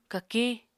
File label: "kakiñ", Apatani